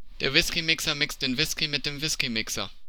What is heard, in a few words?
German tongue twister Whiskeymixer